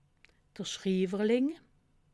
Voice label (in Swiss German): "schriwerling", alsace